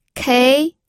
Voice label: "kei2", pinyin